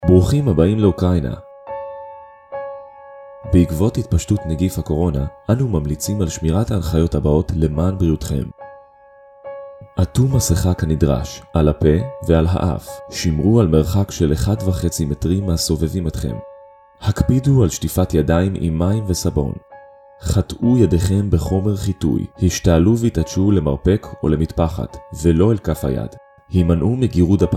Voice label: Rosh Hashanah Hebrew VO